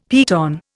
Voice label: "piton", Indian; Dictionary